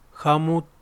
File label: "mujer", Yaqui